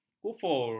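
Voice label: "kufur", Malay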